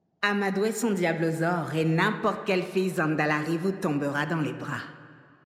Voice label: Female Flirt; Troll Zandalari; Diablosaure

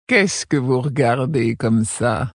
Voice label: Female Flirt; Tauren Haut Roc

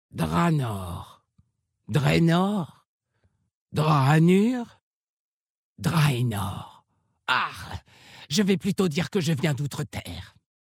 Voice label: Orc Maghar Femme Blague, VF; Outreterre

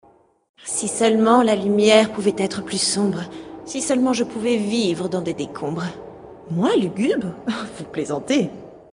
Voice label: Female Blague Lugubre; Elfe du Vide

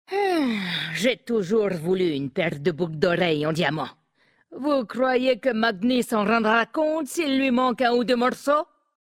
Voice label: VF Femme Blague; Boucle oreille; Nain sombrefer

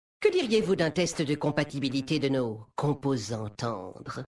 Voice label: Homme Flirt; Mécagnome; Compatibilite

